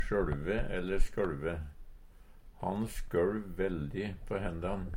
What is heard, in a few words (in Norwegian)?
sjøLve, Numedalsmål; Verb; Ha sjøLv veldig på hendan.